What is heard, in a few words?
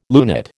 "lunate", American